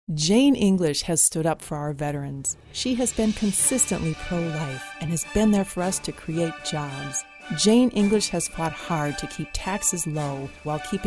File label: Political, en-GB